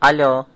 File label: hello, turkish